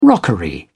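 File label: "rockery", British English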